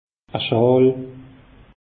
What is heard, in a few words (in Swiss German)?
"schaal", alsace; meteo